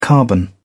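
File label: "carbon", English, British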